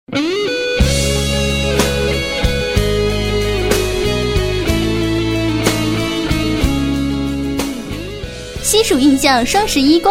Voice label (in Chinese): 促销, 女; 西蜀印象火锅